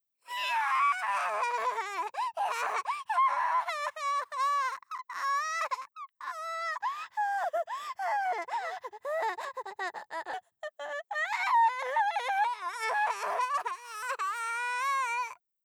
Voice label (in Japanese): パニック